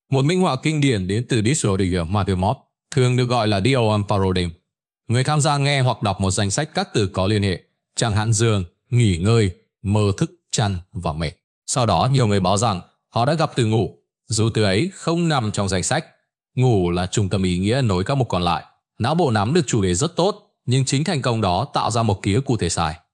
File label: narration